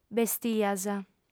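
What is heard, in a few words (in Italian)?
"bestias", lingua sarda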